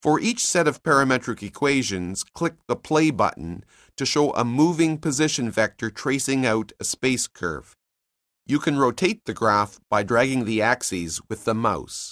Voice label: narration; CALCULUS 7E; cengage